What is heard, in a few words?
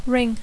"ring", English